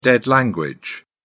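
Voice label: "dead language", en, British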